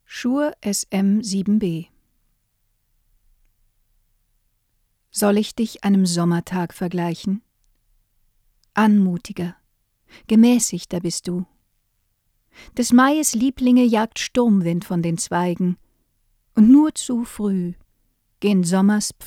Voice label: shure sm 7b sprache

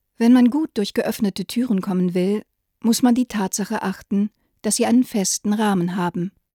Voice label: soundbeispiel ohne effekt weiblich

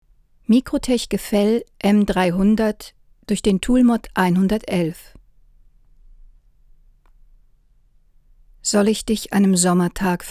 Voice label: microtech gefell m 300 sprache

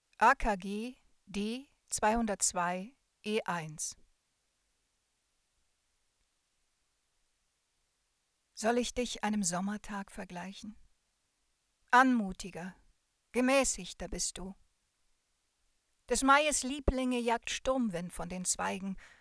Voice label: akg d202 e1 sprache

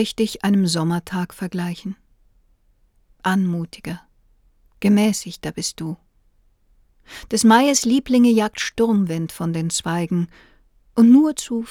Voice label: neumann u 47 sprache

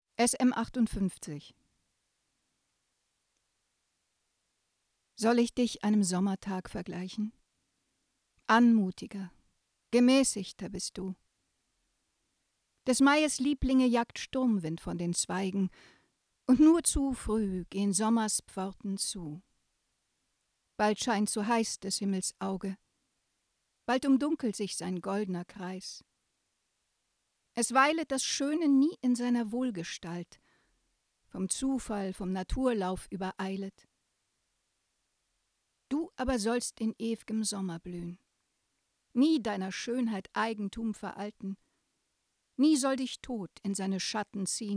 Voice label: shure sm58 sprache

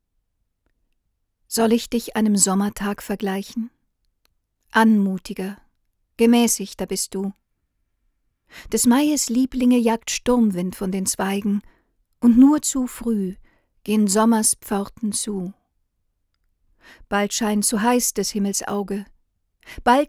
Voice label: audio technica at2035 sprache

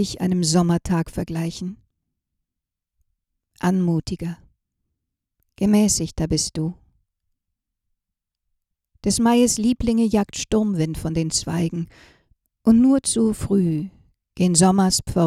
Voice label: sennheiser md 435 lippenkontakt text

